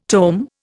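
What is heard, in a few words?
"tomentum", Indian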